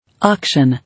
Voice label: "Auction", English

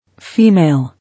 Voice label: Female

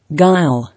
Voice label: "Guile", English

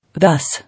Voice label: "Thus", English

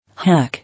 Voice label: "Hack", English

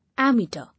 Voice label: "Amateur", English

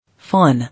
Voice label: "Fawn", English

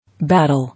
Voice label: "Battle", English

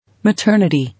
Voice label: "Maternity", English

